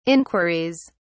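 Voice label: "inquiries", British English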